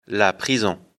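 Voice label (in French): "prison", French; afrikalan; lecture fr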